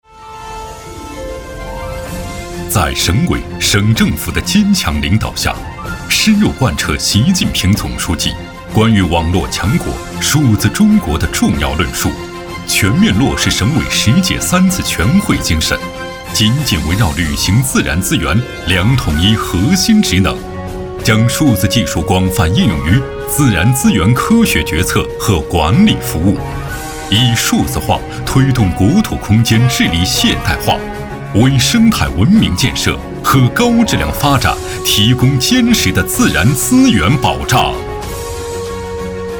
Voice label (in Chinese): 男 专题 汇报 片 大气严肃 数字化转型汇报; 河北省自然资源厅数字化转型汇报